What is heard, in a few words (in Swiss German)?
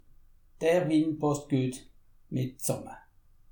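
"passt", Alsatian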